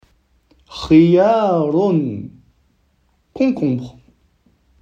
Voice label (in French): concombre en arabe